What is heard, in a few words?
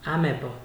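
"amebo", Nigerian Pidgin, male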